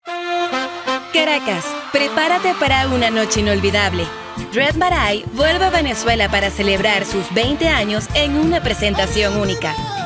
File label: VOice over commercial